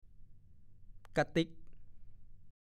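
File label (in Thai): "กะติ๊กกะเตี๋ยว" ภาษาโคราช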